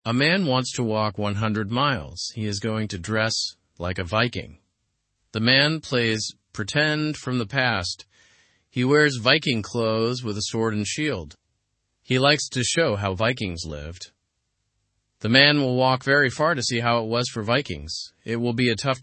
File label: learn english through news beginner normal; viking re enactor to walk 100 miles